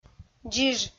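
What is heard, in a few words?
"дзиж", Komi, female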